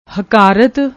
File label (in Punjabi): "hkart", Punjabi; ਹਕਾਰਤ